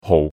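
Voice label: "hau3", Cantonese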